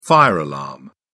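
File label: "fire alarm", British English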